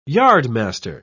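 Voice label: "yardmaster", British English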